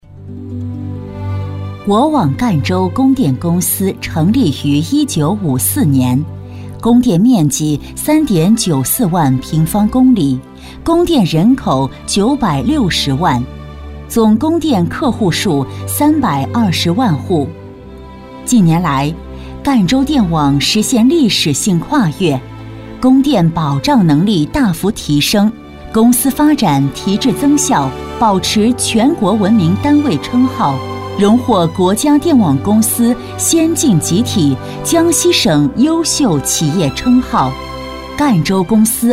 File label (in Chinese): 女3-专题-兼职培训师宣传, 女